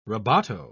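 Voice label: "rebato", British English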